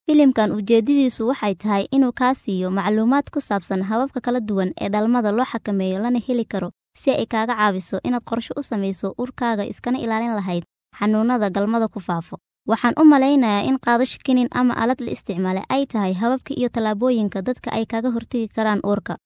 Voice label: somali f